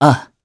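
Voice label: Vox, voices, Japanese; Theo; kingsraid audio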